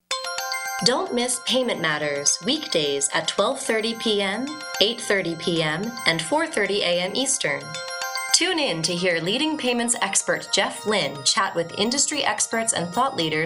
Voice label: Promo Female; Payment Matters